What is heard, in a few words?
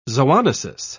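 "zoonosis", British English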